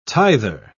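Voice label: "tither", British English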